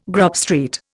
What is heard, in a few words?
"Grub Street", Indian